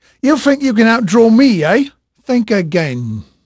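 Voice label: English male, voice; Pirates Of The Caribbean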